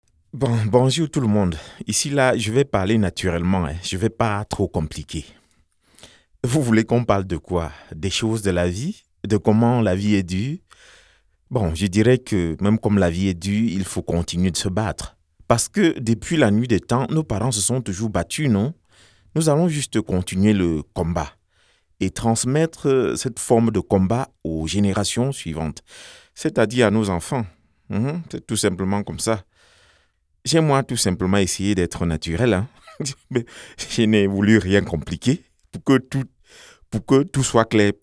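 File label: natural speaking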